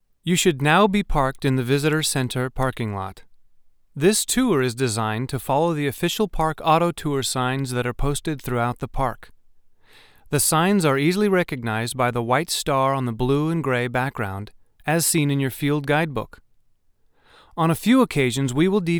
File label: voiceovers